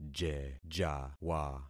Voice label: "jejawa", concat syllables; Affixing experiment